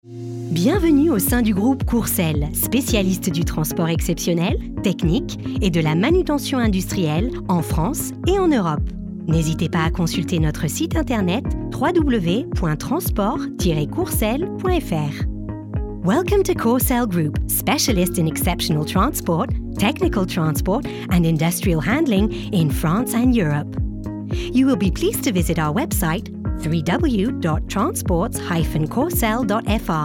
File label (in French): Annonce SVI Siège, telephone directory (English, French); Courcelle Group